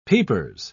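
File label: "peepers", English, British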